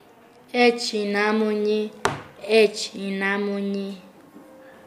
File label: Nasa Yuwe; endemoniado